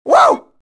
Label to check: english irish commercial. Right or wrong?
Wrong